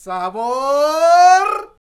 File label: "Sabor!", Vocals; Roland BK-5